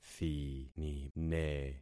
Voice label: "thinine", concat syllables; Affixing experiment

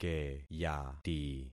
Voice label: "keyati", concat syllables; Affixing experiment

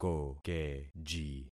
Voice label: "kokeji", concat syllables; Affixing experiment

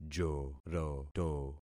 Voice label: "joroto", concat syllables; Affixing experiment

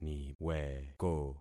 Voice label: "niweko", concat syllables; Affixing experiment

